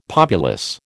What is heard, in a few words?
"populace", American